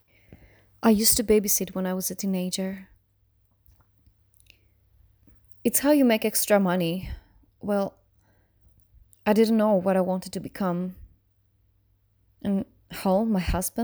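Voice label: English language, voice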